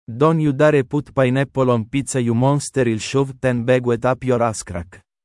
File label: angry